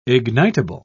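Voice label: "ignitable", English, British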